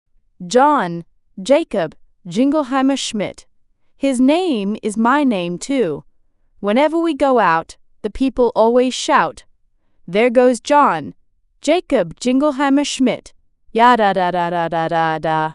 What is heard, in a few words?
fem aus; John Jacob Jingleheimer Schmidt